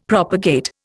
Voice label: "propagate", Indian; Dictionary